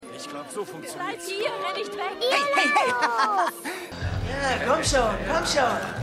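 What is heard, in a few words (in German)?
Mann Frau Mädchen; Hercules; Lakai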